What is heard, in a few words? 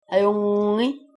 how are you, greenlandic